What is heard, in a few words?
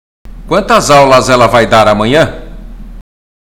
Portuguese Listening; Portuguese Test